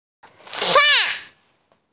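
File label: "fa4", Mandarin Chinese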